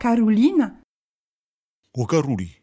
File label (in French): "Carulina", Gravona (sud)